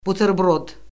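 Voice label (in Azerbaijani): "buterbrod", Azerbaijani; Orfoqrafiya Lüğəti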